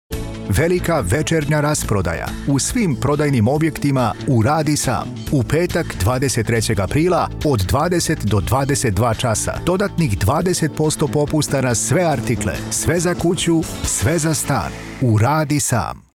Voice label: retail serbian voice over retail store shop market